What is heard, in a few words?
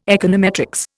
"econometrics", Indian